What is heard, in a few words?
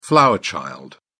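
"flower child", British English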